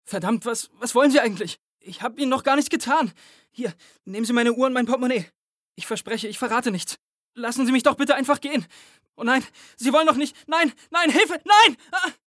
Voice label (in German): ängstlich; LOFT VOICES